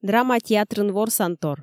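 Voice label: "драма театрын ворсантор", Komi, female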